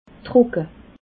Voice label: "trocke", alsace

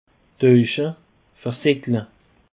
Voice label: "taeusche", alsace

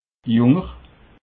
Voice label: "junger", alsace

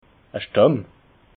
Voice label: "stamm", alsace

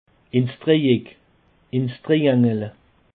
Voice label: "dreieck", alsace

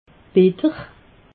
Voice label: "bitter", alsace

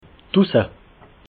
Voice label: "drusse", alsace

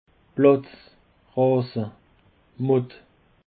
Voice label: "platz", alsace

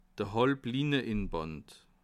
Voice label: "halblinne", alsace

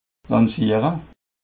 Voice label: "lancere", alsace

